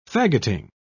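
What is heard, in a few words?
"faggoting", British English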